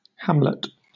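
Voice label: "hamlet" British English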